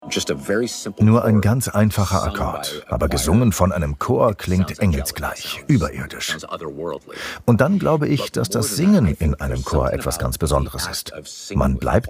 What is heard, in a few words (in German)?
Voiceover Reportage